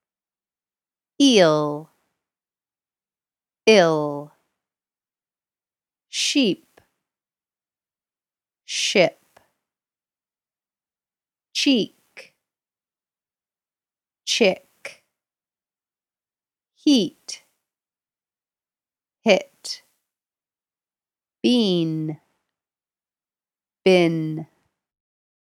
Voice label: Pronunciation Practice